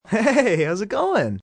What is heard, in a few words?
Hey Hows It Goin, Greetings, male; Greetings And Goodbye